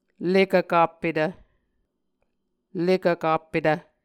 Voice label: "lekvkappetv", Muscogee

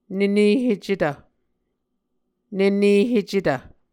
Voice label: "nene hecetv", Muscogee

